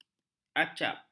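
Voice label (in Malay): "acap", Malay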